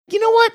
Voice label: you know what high pitched voice